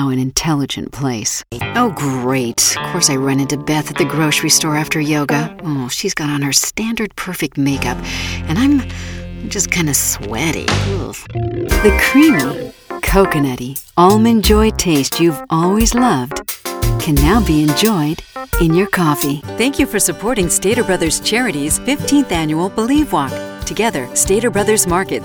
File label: Commercial